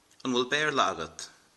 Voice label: do you speak English, Irish